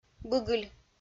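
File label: "быгыль", Komi, female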